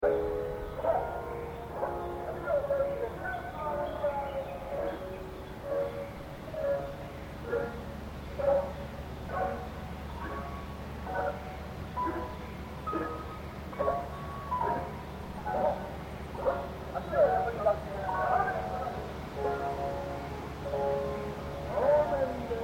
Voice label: Announcement Japan Company Calisthenics, Recording of a company PA in Japan; Recording of a company PA in Japan transmitting company calisthenics; Pa Announcement Sound Effects